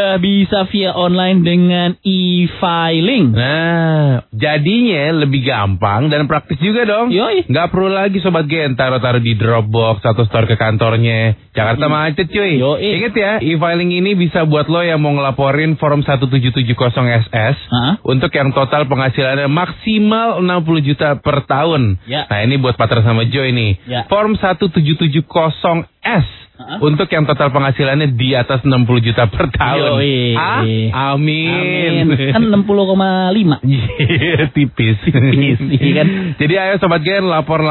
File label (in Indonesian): Iklan Radio Pajak Iklan Adlibs